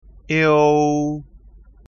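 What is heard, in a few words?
"iou", pinyin; ภาษาจีนกลาง; สำเนียงปักกิ่ง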